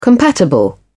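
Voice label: "compatible", British English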